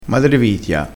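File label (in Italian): "madrevitia", crespinese dialettale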